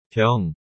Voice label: "병", korean